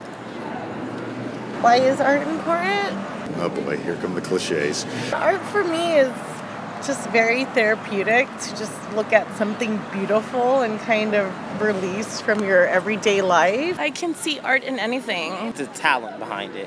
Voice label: voxpop